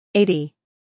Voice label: "eighty", English

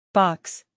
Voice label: "box", English

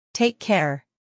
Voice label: "Take care", English

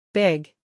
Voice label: "big", English

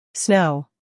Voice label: "snow", English

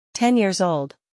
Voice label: "ten years old", English

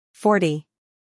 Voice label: "forty", English

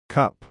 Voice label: "cup", English

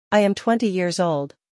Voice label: "I am twenty years old", English

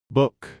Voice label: "book", English

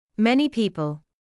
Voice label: "many people", English

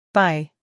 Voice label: "Bye", English

